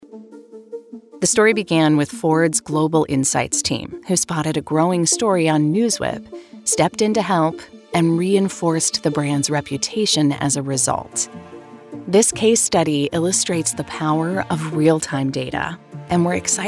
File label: english american corporate videos